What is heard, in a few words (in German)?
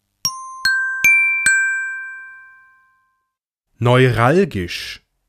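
"neuralgisch", German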